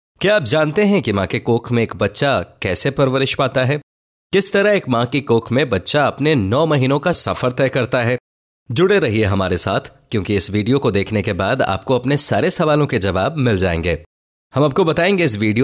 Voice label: Hindi male

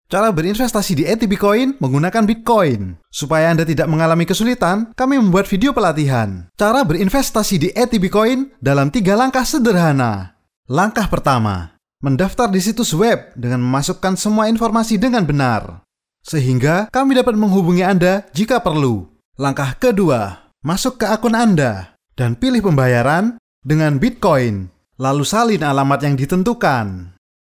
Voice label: Indonesian

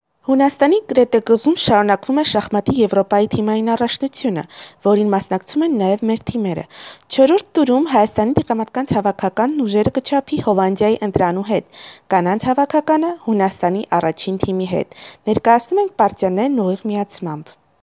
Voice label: Armenian

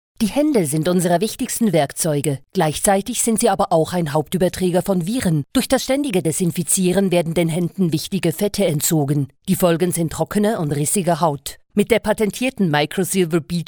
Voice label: German female

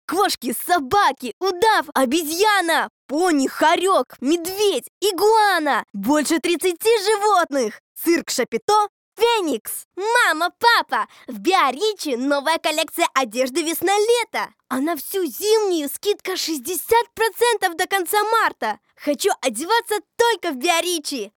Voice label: devochka 13 let Ru, golosa

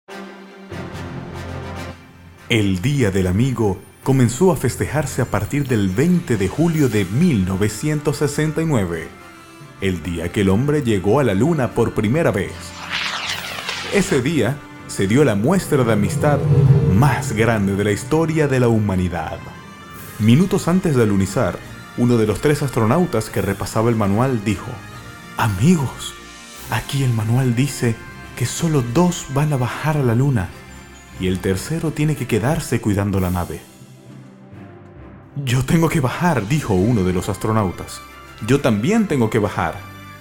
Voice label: Spanish Latin America

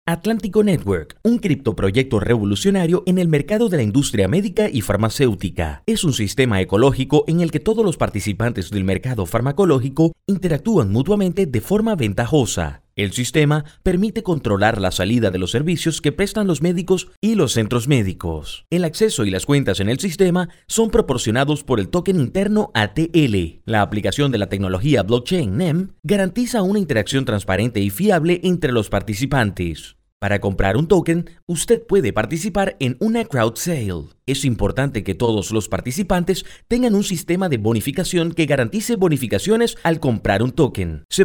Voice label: Spanish - Latin

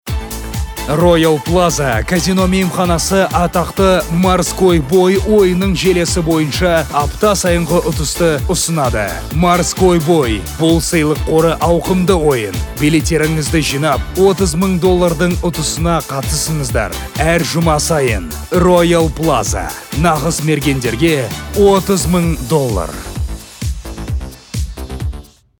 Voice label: KZ, golosa treki

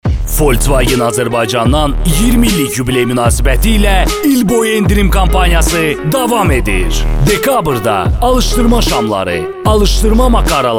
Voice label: Azerbaijan male

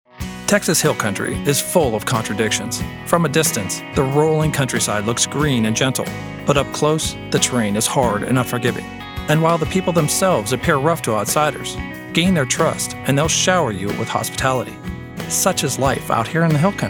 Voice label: ENG-USA, golosa treki

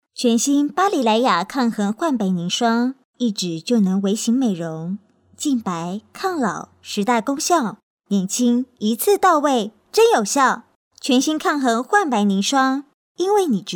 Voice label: Chinese - Mandarin

